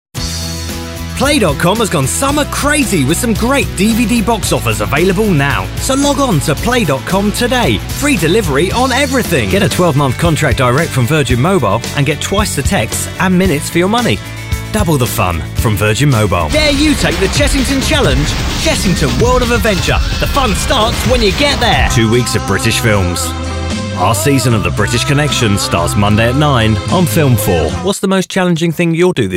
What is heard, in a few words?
Commercial Voice Over